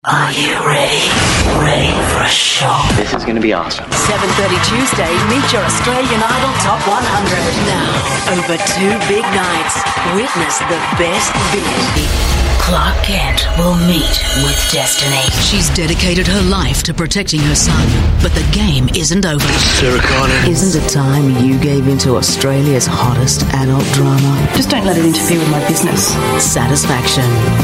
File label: AU English Promo Reads